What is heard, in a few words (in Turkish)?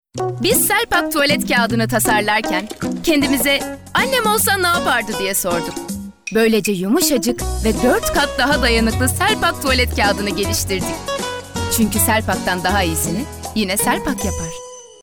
türkçe seslendirme